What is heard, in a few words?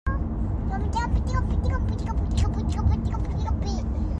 babytalk